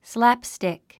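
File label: "slapstick", English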